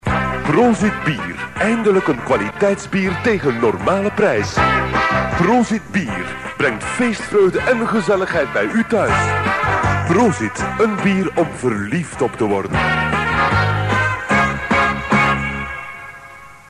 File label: commercial